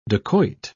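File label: "dakoit", British English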